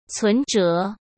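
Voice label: "存折", Mandarin Chinese; chinese flashcards; hsk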